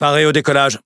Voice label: Speech Pilots Bomber Ready, FR; World Of Warships

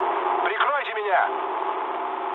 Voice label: aircraft damaged, mood low, speech ru; War Thunder

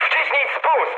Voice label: attack position mood med, speech pol; War Thunder

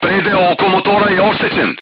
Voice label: voice message engine damaged, serbian crew tanks

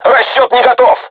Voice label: voice message art reloading, crew tanks russian

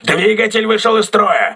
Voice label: engine damaged, crew ships russian

